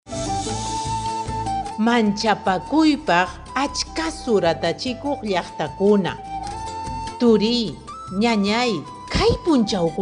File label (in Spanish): quechua chanka nuevas medidas extremo, spot de radio